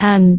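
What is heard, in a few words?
"aan2", Cantonese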